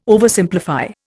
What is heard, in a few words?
"Oversimplify", Indian